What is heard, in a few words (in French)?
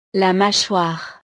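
"la machoire", French; La mandíbula; partes del cuerpo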